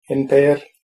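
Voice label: my name is, tamil, male; Tamil (formal); என் பெயர் ...; en peru ...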